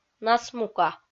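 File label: "насмука", Komi, female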